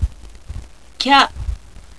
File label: "kya", Japanese